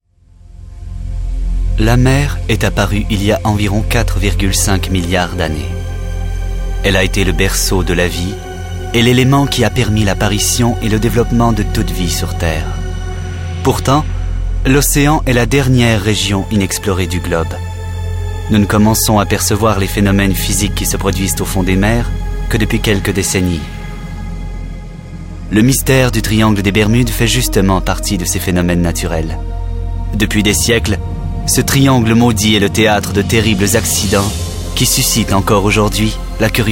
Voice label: Narration documentaire

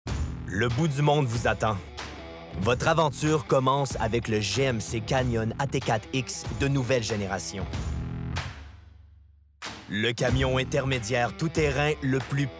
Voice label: Voix Pub; GMC

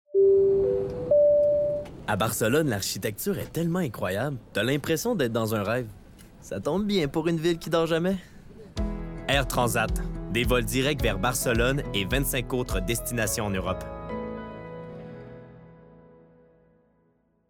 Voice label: Voix Pub; Air Transat

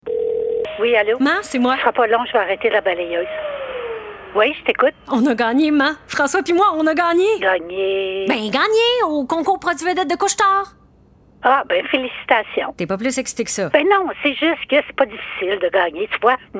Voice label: Fille; Couche-Tard

